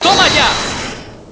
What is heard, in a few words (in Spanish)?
"Toma Ya", Spanish